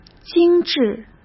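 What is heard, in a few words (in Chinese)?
"精致", Mandarin Chinese; hsk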